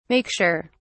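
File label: "make sure", British English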